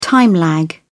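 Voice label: "time lag", British English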